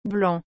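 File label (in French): "blanc", French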